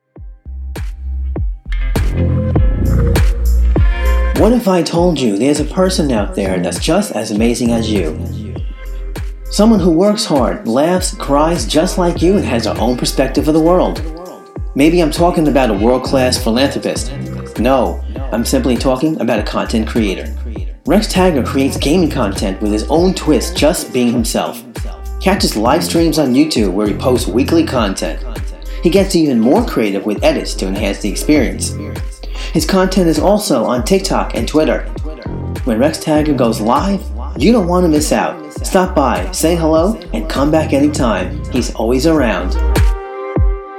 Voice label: commercial